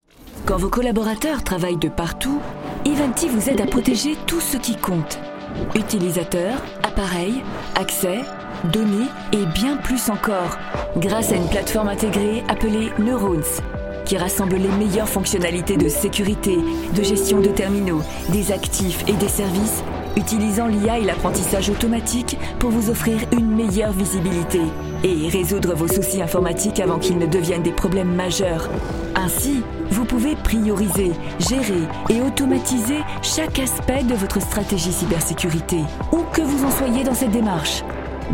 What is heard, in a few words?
VO Corporate; Ivantite secure